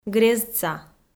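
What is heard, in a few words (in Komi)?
"грездса", Komi, female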